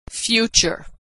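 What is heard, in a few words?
"future", American English; vowel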